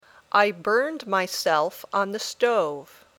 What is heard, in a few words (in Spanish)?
Dictado